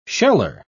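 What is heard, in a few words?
"sheller", British English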